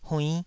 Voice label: "huinn5", Taiwanese syllable